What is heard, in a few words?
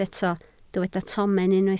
"tomen", Welsh